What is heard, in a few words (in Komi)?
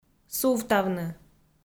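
"сувтавны", Komi, female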